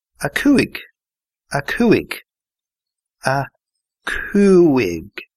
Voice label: five irish